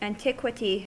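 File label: "antiquity", American English; pronunciations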